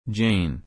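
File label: "Jane", English; dʒéin; Oxford Learner's Dictionary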